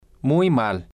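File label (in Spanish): "muy mal", spanish, male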